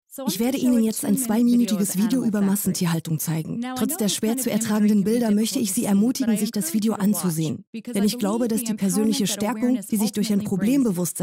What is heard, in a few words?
VOICE OVER; Karnismus erkennen